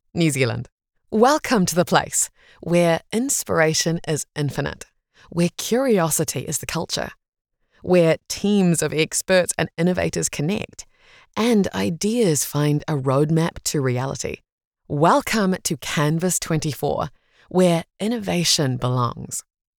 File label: english new zealand audio guides; voice actor